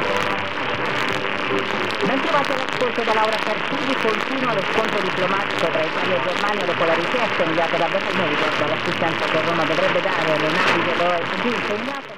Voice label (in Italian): RADIO ANNUNCIO; Radio Zainet; trasmette su 693 kHz da Siziano con 2 kW dichiarati; L'ascolto è stato effettuato a Tortorici, provincia di Messina, con Kenwwod TS-440